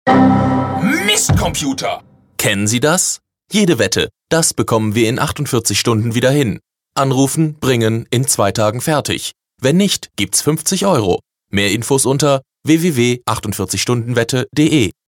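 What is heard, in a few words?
German commercial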